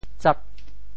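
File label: "tsap8", Teochew; 揭阳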